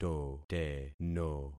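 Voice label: "toteno", concatenated syllables; Affixing experiment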